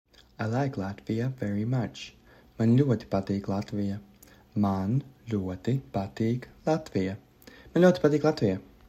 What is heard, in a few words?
I like Latvia very much, Latvian; the talking latvian phrasebook